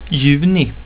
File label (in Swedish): "juni", swedish